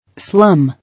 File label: "Slum", English